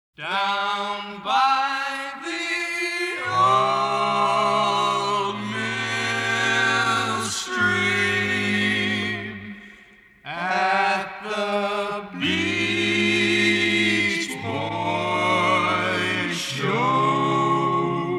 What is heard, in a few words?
Radio Promo Spot